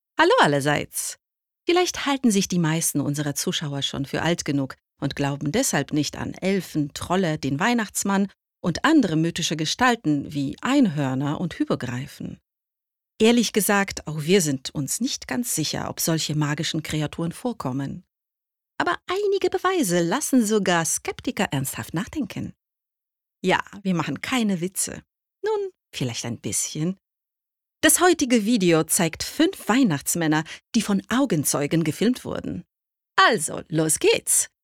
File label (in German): DEU Werbung Anmoderation